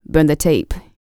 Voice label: FEM SPOKEN; ILIO; Vocal Planet VOL-4; R&B & Dance